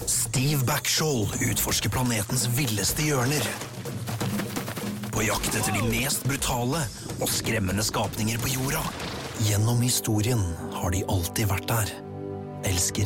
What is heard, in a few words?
Norwegian; Norwegian, Scandinavian, Male, 20s-30s